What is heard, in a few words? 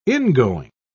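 "ingoing", British English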